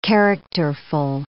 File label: "characterful", English